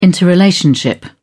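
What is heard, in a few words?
"interrelationship", British English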